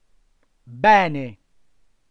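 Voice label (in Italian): "bene" Italian word, voce; ˈbɛne; aperto, grave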